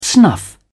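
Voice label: "snuff", British English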